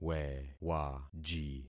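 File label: "wewaji", concatenated syllables; Affixing experiment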